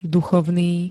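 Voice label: "duchovný", Slovak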